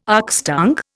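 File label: "oxtongue", Indian English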